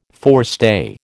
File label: "forestay", American